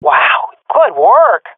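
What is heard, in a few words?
compliment, swat female; Counter Strike Model Pack; CS:GO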